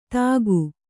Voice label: "ಥಾಕು", Kannada

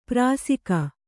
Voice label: "ಪಾರಸೀಕ", Kannada

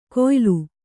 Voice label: "ಕೊಯಲು", kannada

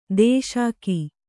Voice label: "ದೂಷಿಕೆ", Kannada

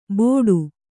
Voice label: "ಬೋಡು", Kannada

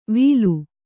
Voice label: "ವೀಲು", Kannada

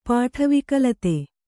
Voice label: "ಪಾಠವಿಕಲತೆ", Kannada; pāṭha vikalate

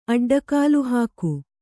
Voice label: "ಅಡ್ಡಗಾಲುಹಾಕು", kannada

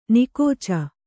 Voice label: "ನಿಕೋಚ", Kannada

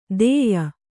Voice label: "ಧೇಯ", Kannada

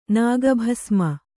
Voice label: "ನಾಗಭಸ್ಮ", kannada

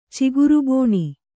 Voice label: "ಚಿಗುರುಬೋಣಿ", Kannada

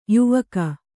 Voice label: "ಯಾವಾಕೆ", Kannada

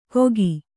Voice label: "ಗೋಕಿ", kannada

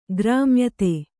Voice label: "ಗ್ರಾಮ್ಯತೆ", Kannada

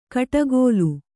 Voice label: "ಕಡಗೋಲು", Kannada

